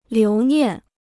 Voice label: "留念", Chinese